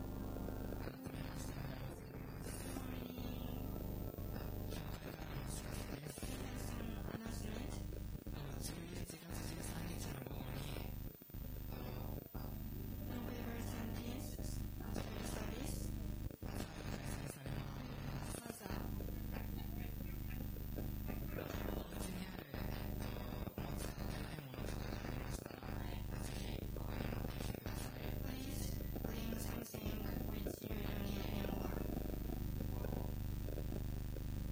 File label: announcement; sunday message 2022